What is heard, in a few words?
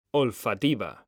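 "olfativa", Spanish; mekanta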